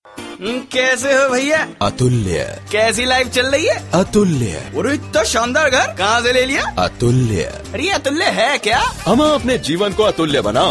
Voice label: promos, hindi

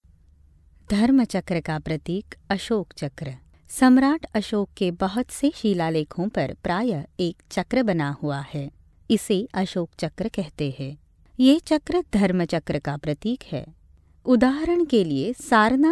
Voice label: elearn hindi

